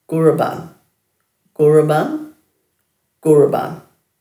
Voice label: "guraban", Dhurga